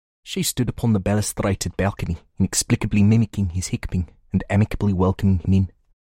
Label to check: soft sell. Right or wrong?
Wrong